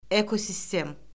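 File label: "ekosistem", Azerbaijani; Orfoqrafiya Lüğəti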